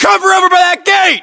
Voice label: US order cover gate, battlechatter, english